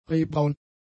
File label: "rehbraun", German; adjectives